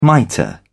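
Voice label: "mitre", British English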